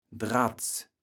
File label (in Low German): "Dratz", kaevels platt